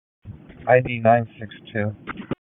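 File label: Police District Dispatch, p25; wake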